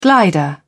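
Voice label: "glider", British English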